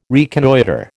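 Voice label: "reconnoitre", American English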